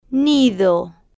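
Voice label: "nido", Spanish, female, slow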